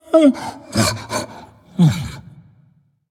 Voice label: Undead Beast Emote ERROR I ATTACKED; Arfus